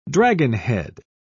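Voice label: "dragonhead", British English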